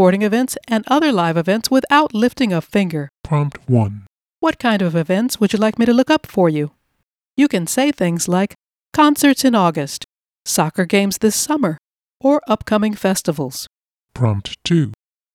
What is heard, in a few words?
IVR Voice Application